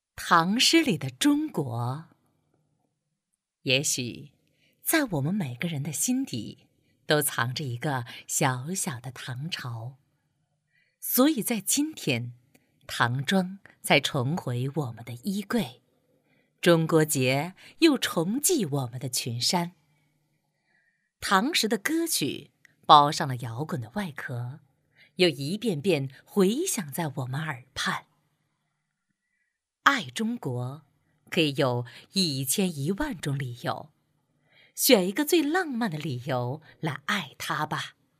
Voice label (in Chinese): 女 朗诵 大气磅礴; 唐诗里的中国